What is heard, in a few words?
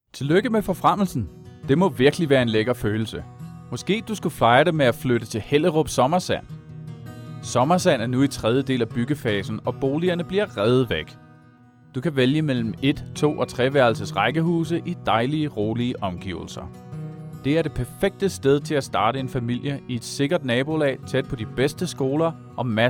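Danish commercial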